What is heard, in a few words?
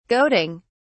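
"goading", British English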